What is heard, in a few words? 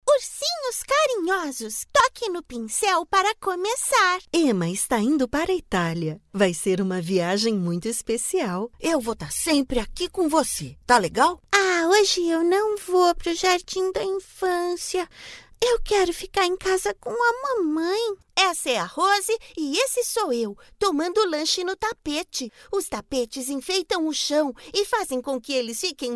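Brazilian Portuguese, Characters; GoLocalise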